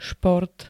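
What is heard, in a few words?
"šport", Slovak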